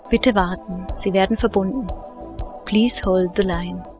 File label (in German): ansage